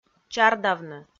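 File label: "чардавны", Komi, female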